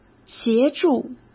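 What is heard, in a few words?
"协助" Mandarin Chinese; hsk; chinese-flashcards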